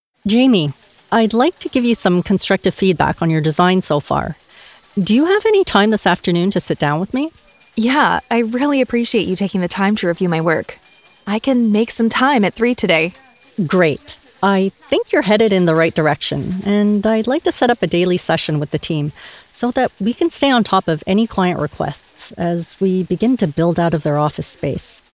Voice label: E-LEARNING INTERACTIVE